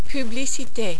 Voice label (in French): "publicite", French